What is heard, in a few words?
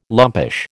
"lumpish", American